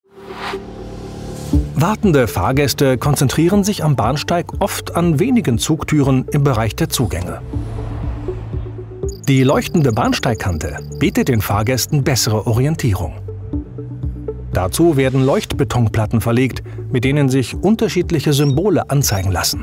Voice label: Freundlich

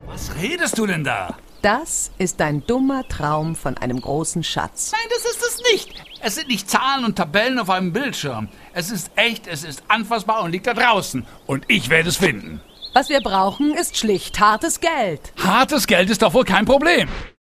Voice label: Schauspiel Lebendig

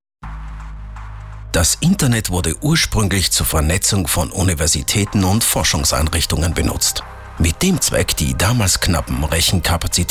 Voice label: Kommentar Neutral

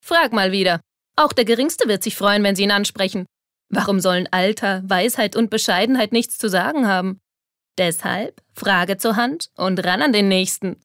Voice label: Werbung Cool

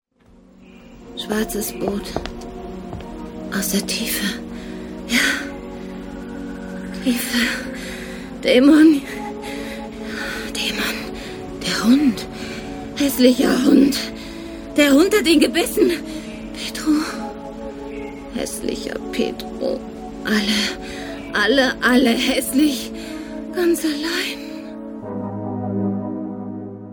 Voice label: Schauspiel Sanft

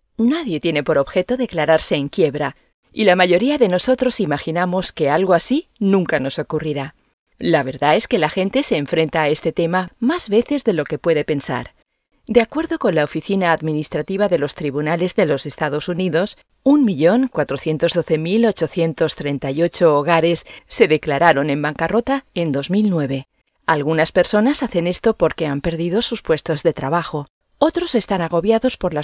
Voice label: ESP Kommentar Freundlich

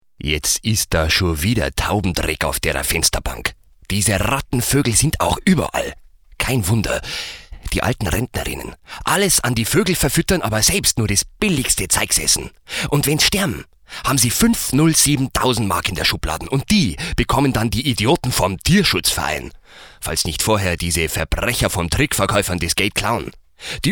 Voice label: Dialekt Bayerisch

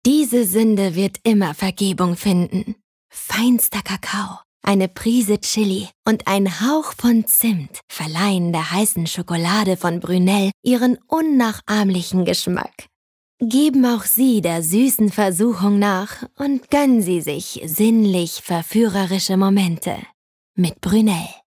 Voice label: Sanft

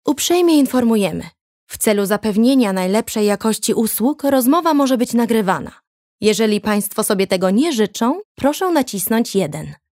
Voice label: PL Telefonansage Neutral; Fremdtake

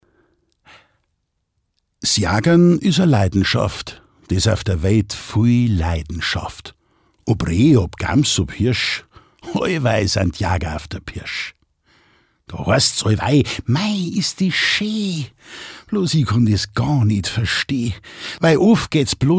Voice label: Dialekt Bayerisch

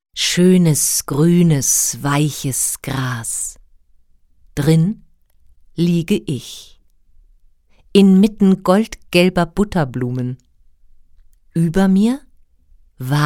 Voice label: Sanft

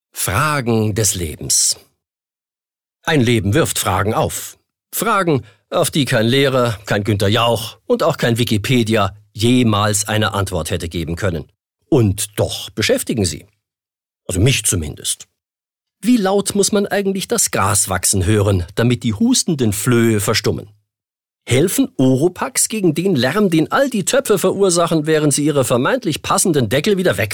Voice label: Schauspiel Energisch

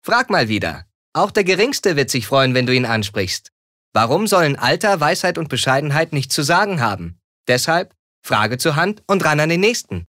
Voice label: Werbung, Frech

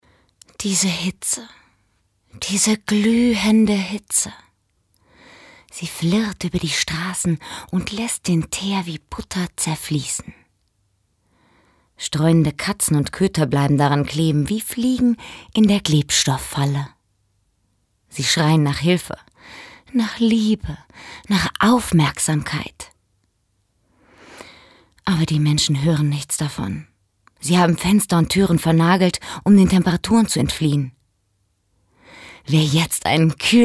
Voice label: Schauspiel Sanft

